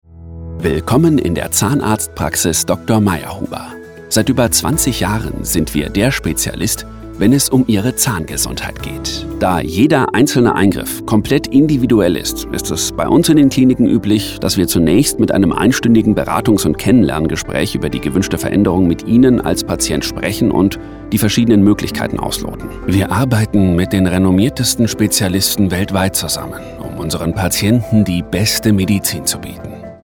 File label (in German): imagefilm gesundheit